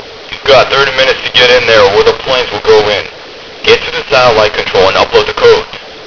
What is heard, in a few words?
radio; svencoop; grunts2